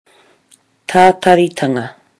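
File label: "tataritanga", Māori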